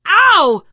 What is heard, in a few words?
friendly fire, teen girl voice; survivor voice